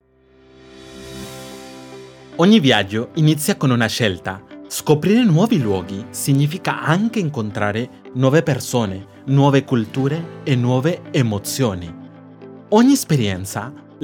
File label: Italian